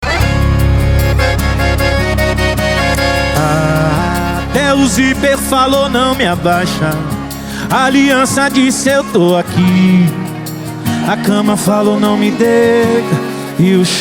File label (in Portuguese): locutor a voz magnifica